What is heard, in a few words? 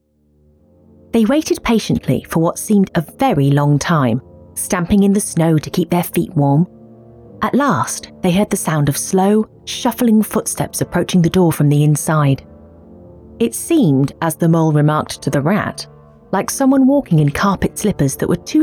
Audiobook